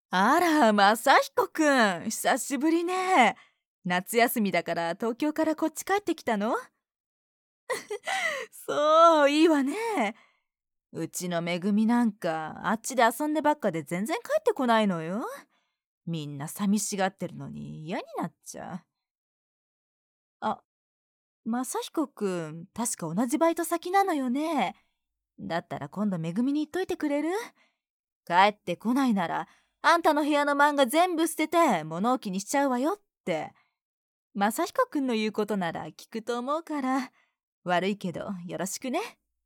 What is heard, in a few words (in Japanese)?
ボイスサンプル（40代母親）